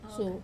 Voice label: "su", enter; wamesa